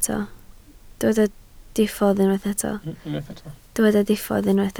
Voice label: "diffodd", Welsh